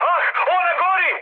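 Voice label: Serbian on fire mood high, speech; War Thunder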